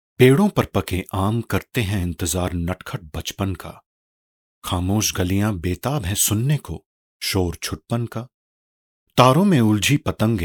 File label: hindi, male voice